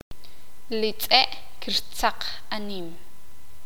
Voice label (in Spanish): "el perro corre", Sakapulteko